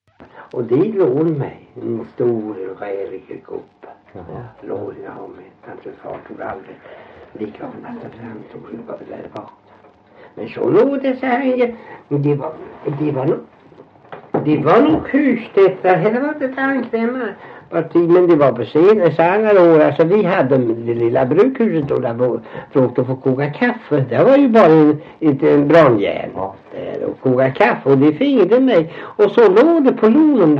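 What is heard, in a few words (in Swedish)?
Värö Halland, Svenska sagor dialekter; Folkminnen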